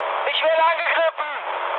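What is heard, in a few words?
German aircraft damaged mood med, speech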